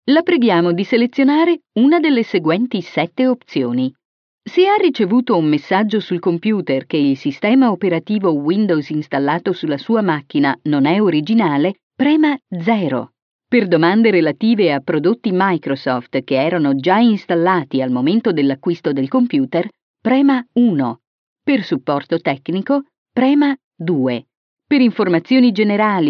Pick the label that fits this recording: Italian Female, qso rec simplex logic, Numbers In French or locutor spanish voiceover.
Italian Female